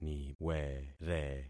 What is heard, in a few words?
"niwere", concat syllables; Affixing experiment